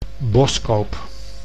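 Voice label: "Boskoop", Dutch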